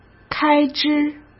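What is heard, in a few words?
"开支", Mandarin Chinese; hsk; chinese-flashcards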